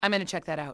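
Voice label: "im going to check", english, female; XBOX; enemy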